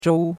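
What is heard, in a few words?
"zhou1", Chinese syllable, first tone; Cours-de-Chinois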